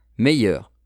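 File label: "meilleur", French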